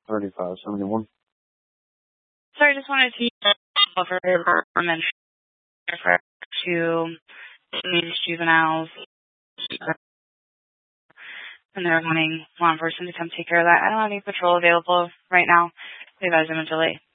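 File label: Police Dispatch, Scanner audio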